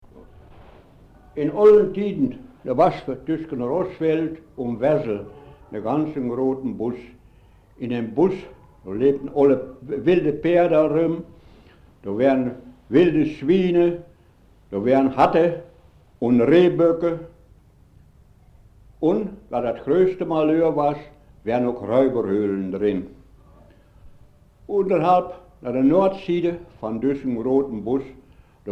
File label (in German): Dialektgeschichten; vier Dialektgeschichten